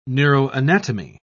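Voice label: "neuroanatomy", British English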